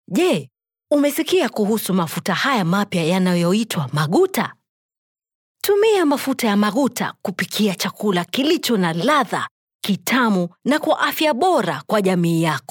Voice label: female swahili voice over upbeat